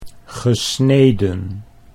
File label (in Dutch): "gesneden", Dutch